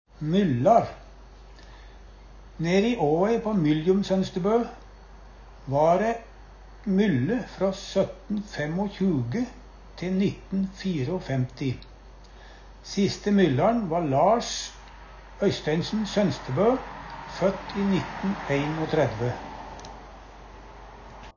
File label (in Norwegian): myllar, Numedalsmål